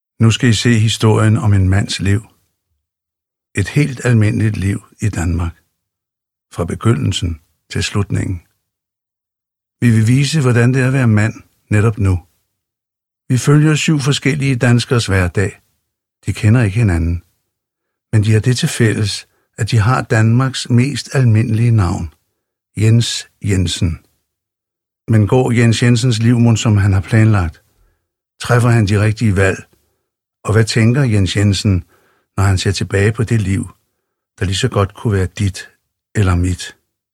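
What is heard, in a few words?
Danish Audiobook Male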